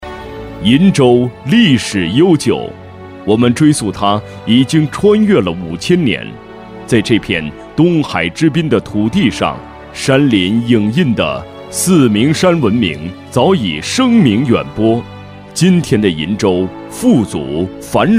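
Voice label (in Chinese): 专题, 男; 鄞州警察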